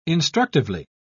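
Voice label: "instructively", British English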